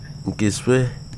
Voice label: "yellow", Wahgi; lae unitech